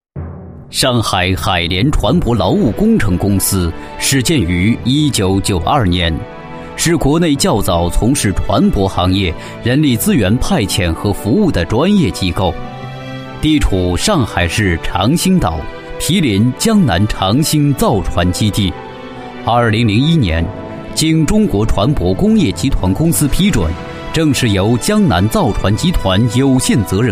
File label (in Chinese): 专题 企业 浑厚; 上海造船厂